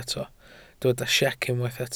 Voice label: "siec", Welsh